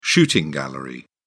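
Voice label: "shooting gallery", British English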